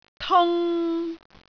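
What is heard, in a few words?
"tung3", Cantonese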